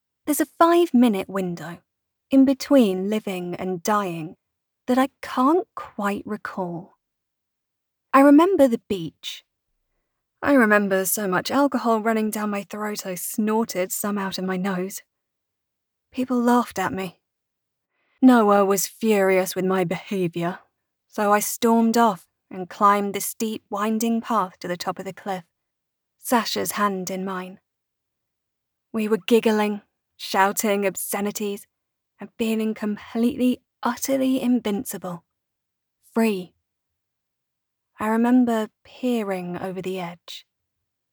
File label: First person dark humour